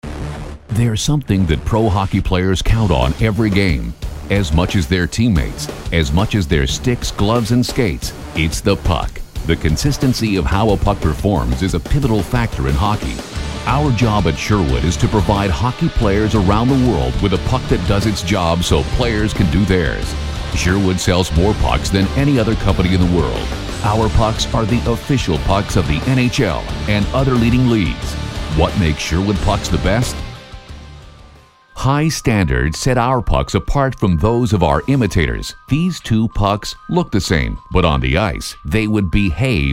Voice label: TV Commercials